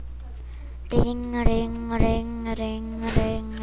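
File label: "ring", spoken word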